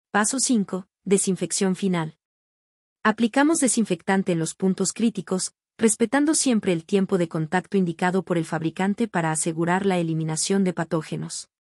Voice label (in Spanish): locucion